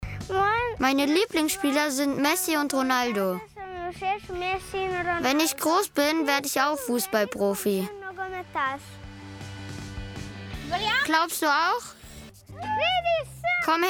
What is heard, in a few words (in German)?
voice-over; kika